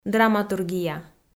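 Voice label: "драматургия", Komi, female; kpv audio dict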